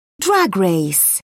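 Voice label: "drag race", British English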